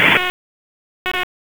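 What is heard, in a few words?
Raleigh Police North District Tactical, p25; wake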